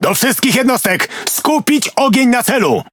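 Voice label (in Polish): Speech Quick Commands Enemy Ship, Polish; World Of Warships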